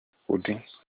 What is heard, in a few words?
"buttocks", Olukumi